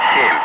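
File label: Russian speech, Ka-50 Wingman; Digits; DCS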